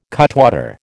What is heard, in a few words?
"cutwater", American